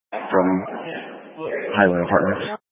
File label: Grand Junction Fire Department Dispatch